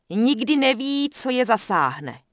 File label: Night Elf Female Charge; wowpreklad; czwow; NightElfVocalFemale